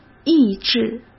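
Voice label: "抑制", Mandarin Chinese; hsk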